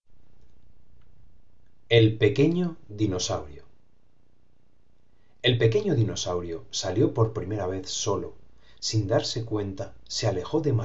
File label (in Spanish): narracion